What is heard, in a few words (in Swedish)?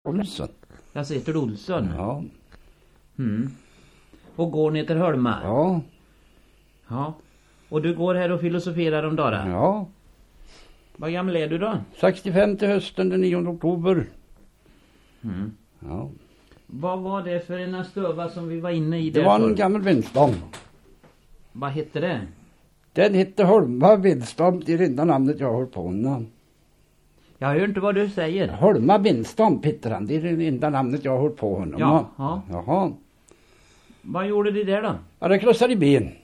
dialekter; Folkminnen; Svenska sagor